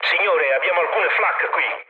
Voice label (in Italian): damaged aa, mood low, speech it; War Thunder